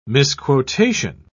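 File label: "misquotation", British English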